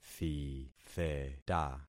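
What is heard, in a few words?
"thitheta", concatenated syllables; Affixing experiment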